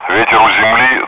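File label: Speech, Russian, air traffic control; wind; DCS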